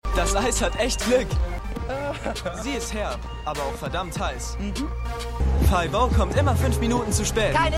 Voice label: Junger Typ, Stimmproben; LO SVU

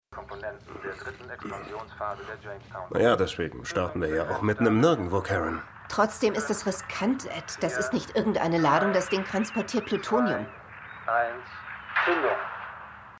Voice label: Countdownsprecher; Stimmproben; For All Mankind

